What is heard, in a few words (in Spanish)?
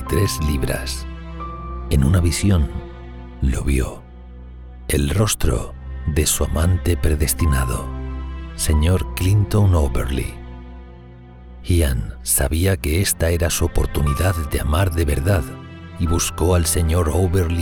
Narraci n corta